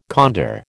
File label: "condor", American